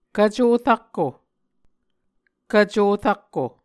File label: "kvco rakko", Muscogee; Mvskoke Words with Repeated Consonants; A Dictionary of Creek/Muskogee; SIL International